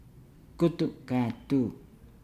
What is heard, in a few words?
"dog flea", Nukuoro